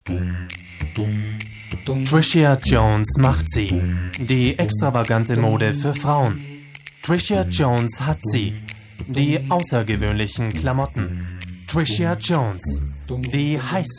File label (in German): hit fm Werbung